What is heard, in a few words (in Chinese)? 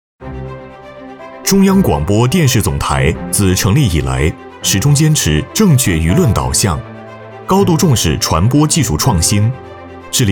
男 专题宣传 大气解说多媒体汇报